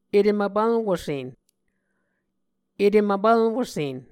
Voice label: "etem vpvlwusen", Muscogee; Mvskoke Words with Nasalized Vowels

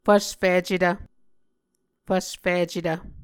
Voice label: "fvsficetv", Muscogee

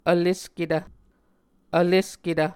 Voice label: "vlesketv", Muscogee

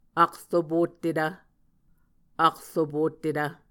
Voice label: "akropottetv", Muscogee

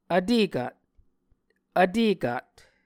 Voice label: "vtekat", Muscogee

